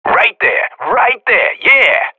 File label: radio bot cheer, professional; player vo